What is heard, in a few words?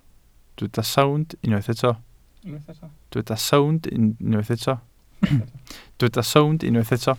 "sownd", Welsh